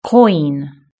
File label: "coin", british english